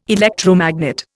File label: "electromagnet", Indian